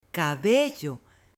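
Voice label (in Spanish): "cabello", female; CUERPO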